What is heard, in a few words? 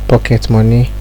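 "pocketmoney", Nigerian Pidgin, male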